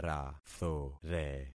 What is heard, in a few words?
"rathore", concat syllables; Affixing experiment